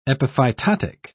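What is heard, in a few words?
"epiphytotic", British English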